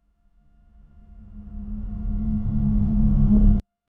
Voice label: sudden distorted whisper; atmospheric